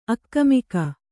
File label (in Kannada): "ಆಗಾಮಿಕ", Kannada